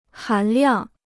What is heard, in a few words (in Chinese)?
"含量", Chinese